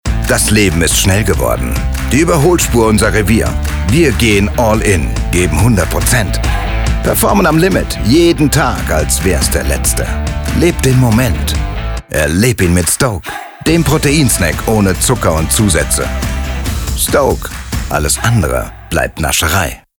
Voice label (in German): Werbung; Stoke